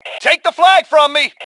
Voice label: Flag Take, Male; T2 Male 01